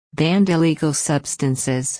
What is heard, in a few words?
"banned illegal substances" US